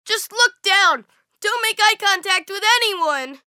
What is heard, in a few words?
CHATTER; bully; Pedro